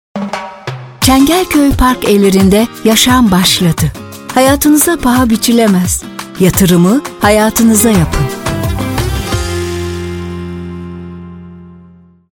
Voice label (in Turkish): reklam; cengelkoy parkevleri